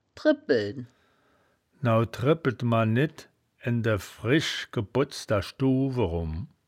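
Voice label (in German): "drebpeln", wittlicher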